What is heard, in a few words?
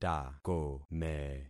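"takome", concatenated syllables; Affixing experiment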